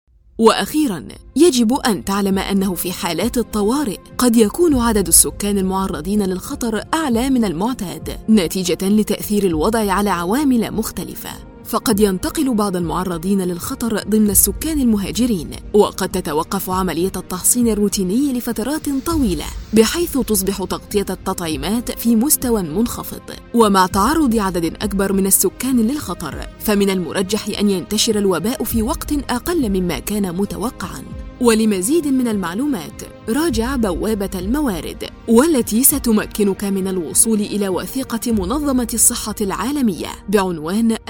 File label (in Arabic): arabic training e-learning